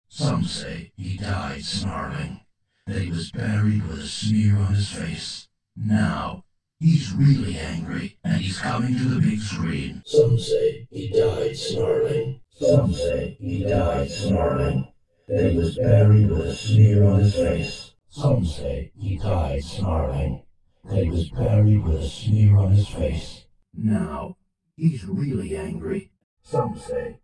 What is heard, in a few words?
Cartoon Voice Over